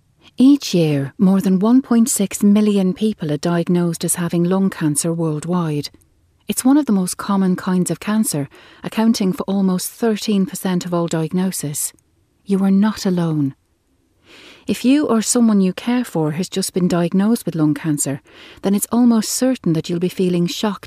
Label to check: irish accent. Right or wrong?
Right